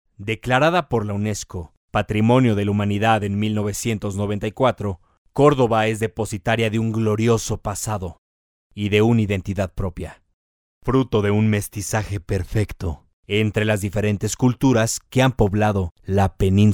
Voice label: mexican voiceover locutor mexicano